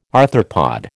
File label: "arthropod", American